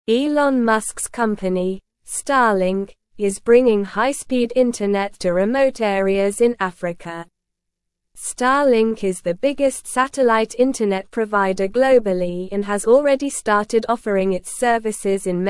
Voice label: English Upper Intermediate SLOW Reading; Starlink Revolutionizing African Connectivity with Satellite Internet

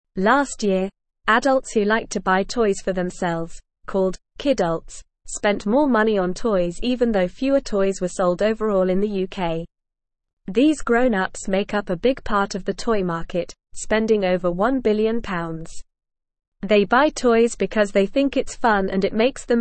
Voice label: English Lower Intermediate NORMAL Reading; Grown ups are buying toys for themselves

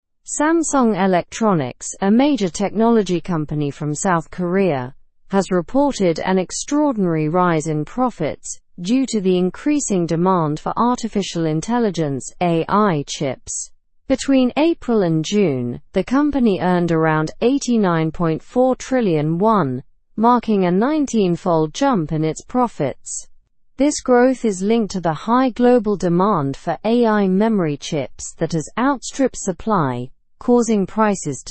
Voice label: english news upper intermediate; samsung s rising fortunes with ai chip boom; slow

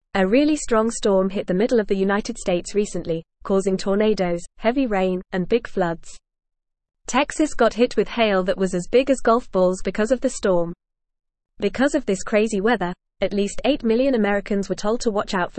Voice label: English FAST Reading

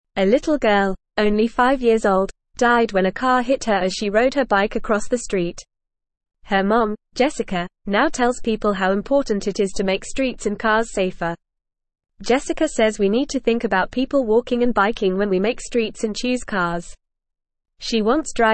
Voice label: Lower Intermediate FAST Reading, English; English Newsroom; Mom Works to Make Streets Safer for Everyone

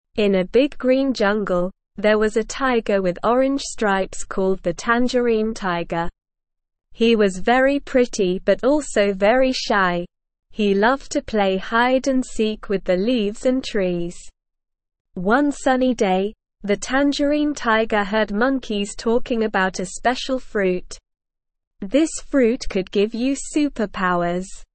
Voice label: ESL Short Stories for Kids Beginner SLOW Reading; The Tale of the Tangerine Tiger

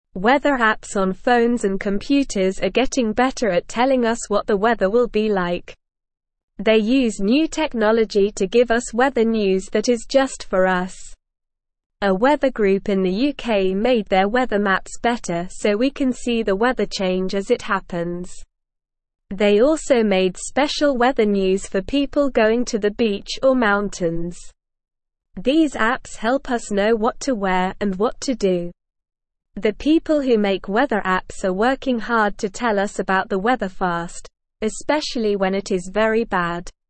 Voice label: English Newsroom Beginner SLOW Reading; Weather Apps Helping Us Know the Weather

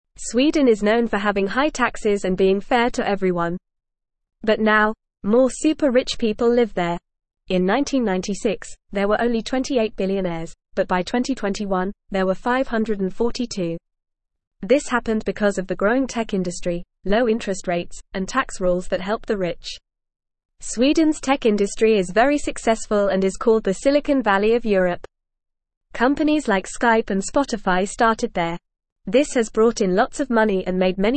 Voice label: English FAST Reading; More Rich People in Sweden Why and How

